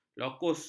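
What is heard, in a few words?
"lokus", Malay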